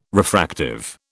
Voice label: "refractive", British